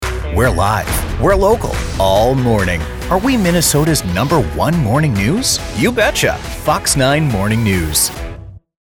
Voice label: Morning News Bright Friendly Cheerful